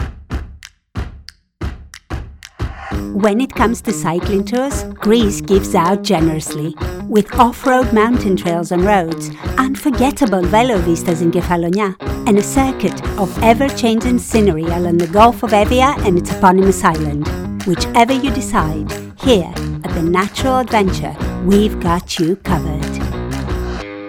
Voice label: English